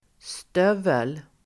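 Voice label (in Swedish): "stövel", swedish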